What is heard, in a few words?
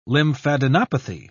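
"lymphadenopathy", British English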